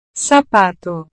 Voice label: "sapato", portugues